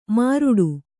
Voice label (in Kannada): "ಮಾರುಡು", Kannada